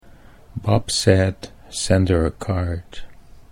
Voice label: Bob said send, Dutch accent

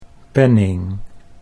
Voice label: "penning", Dutch

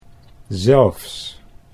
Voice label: "zelfs", Dutch

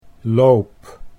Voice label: "loop", Dutch

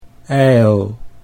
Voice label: "ijl", Dutch

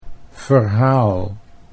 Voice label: "verhaal", Dutch

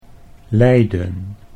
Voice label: "lijden", Dutch

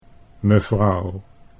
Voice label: "mevrouw", Dutch

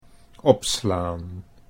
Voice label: "opslaan", Dutch

